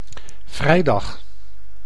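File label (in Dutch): "vrijdag", Dutch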